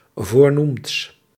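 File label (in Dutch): "voornoemds", Dutch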